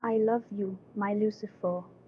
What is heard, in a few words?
her voice